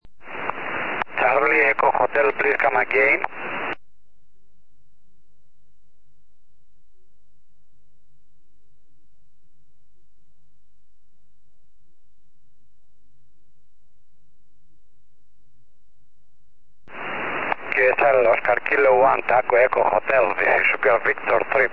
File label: 4m ssb